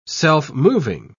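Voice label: "self-moving", British English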